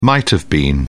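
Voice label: "might-have-been", British English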